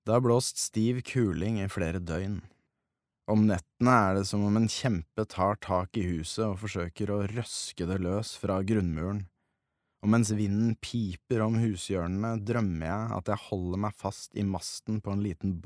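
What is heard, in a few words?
audiobook, norwegian, male; lydbok; norsk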